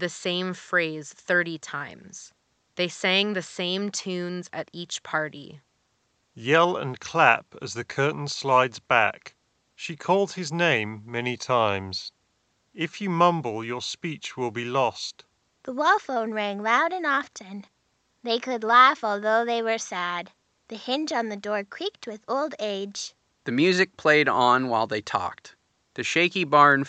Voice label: Astro A10 Gen2 Ideal microphone sample